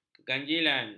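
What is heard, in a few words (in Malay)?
"keganjilan", Malay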